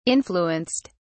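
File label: "influenced", British English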